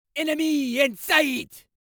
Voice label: Voices Jamaican Enemy In Sight